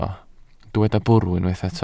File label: "bwrw", Welsh